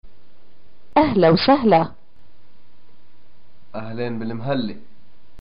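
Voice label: greeting arrival, Palestinian Arabic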